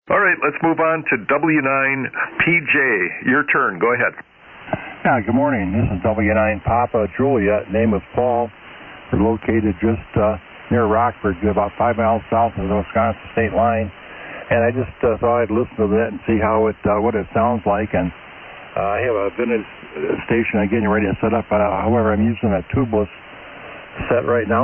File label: Icom 7600 & Icom PW-1 Amp; Roscoe, IL.